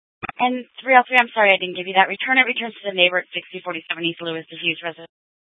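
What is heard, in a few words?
Scottsdale Police Department South Dispatch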